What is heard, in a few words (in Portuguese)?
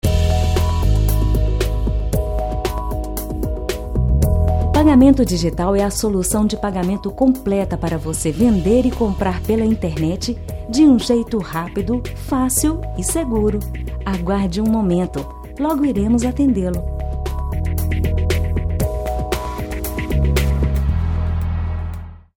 espera neutro; buscape